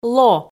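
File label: "ло", Komi, female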